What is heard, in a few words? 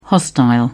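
"hostile", British English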